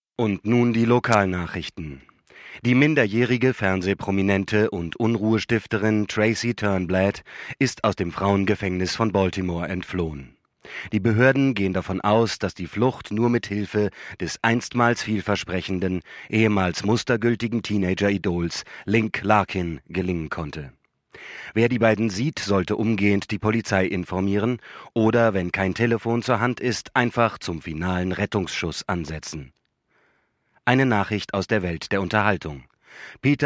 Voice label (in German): NACHRICHTEN RADIO; LOFT VOICES